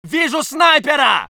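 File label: voice soviet infantry; Red Orchestra 41-45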